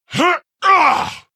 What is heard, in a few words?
Female Quilboar Attack